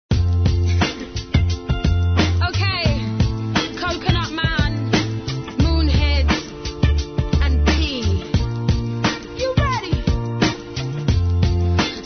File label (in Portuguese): Masculino, Telemensagens, Românticas; Desfile de Sonhos; Telemensagens 2017